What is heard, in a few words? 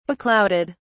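"beclouded", British English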